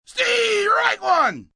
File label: Steerike, umpire, male, baseball; Talking; VoiceBox